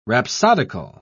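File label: "rhapsodical", British English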